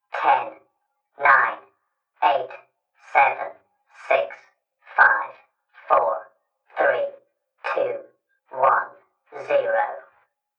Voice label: Creepy Caller Countdown, Recorded Voice